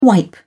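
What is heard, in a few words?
"wipe", British English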